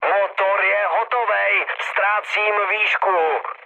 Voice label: damaged engine, speech cze, mood med; War Thunder